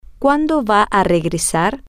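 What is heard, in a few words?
Spanish, female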